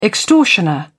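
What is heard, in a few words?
"extortioner", British English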